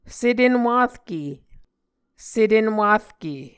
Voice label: "setenwarke", Muscogee